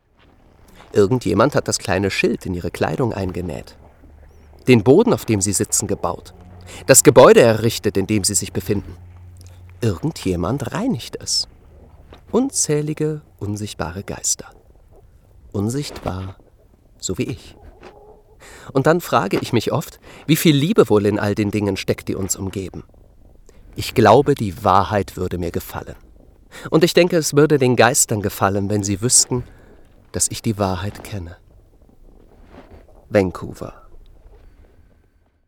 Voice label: Neutral

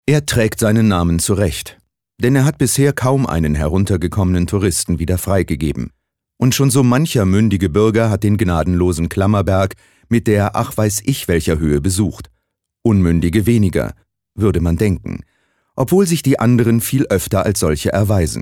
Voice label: Kommentar Neutral

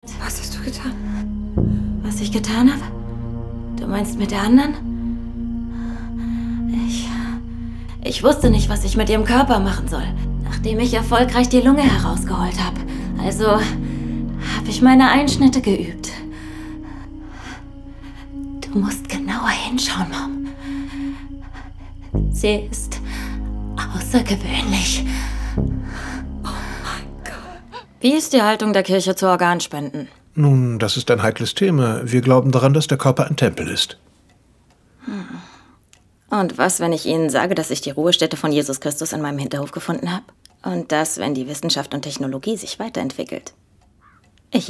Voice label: Schauspiel Aufgeregt

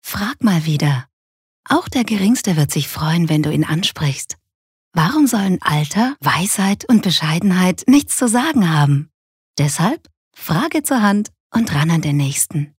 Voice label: Werbung, Sanft

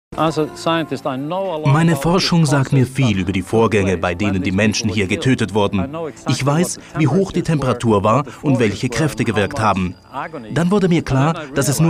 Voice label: Kommentar Neutral

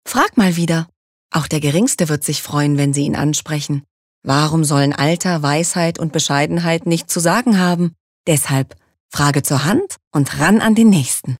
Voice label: Werbung Freundlich

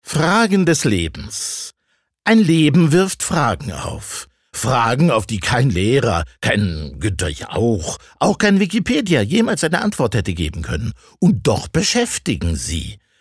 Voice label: Schauspiel, Dynamisch

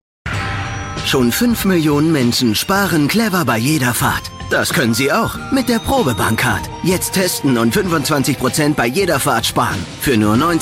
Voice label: Dynamisch; Fremdtake

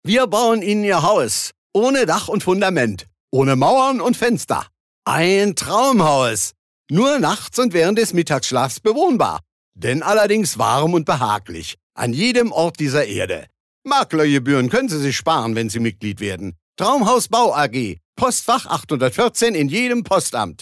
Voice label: Dialekt Berlinerisch

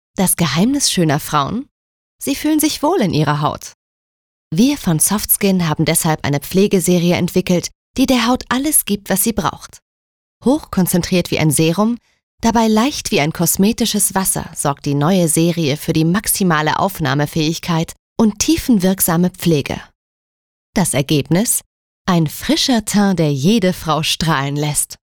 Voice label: Sanft; Soft Skin

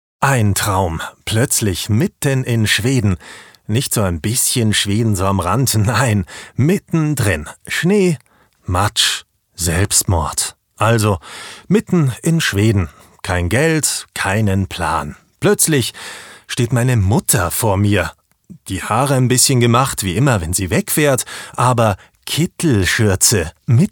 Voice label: Schauspiel Neutral

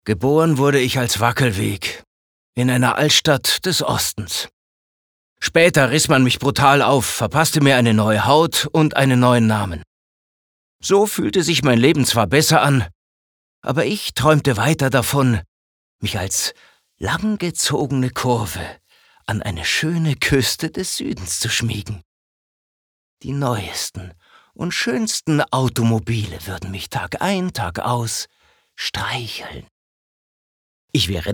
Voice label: Sanft

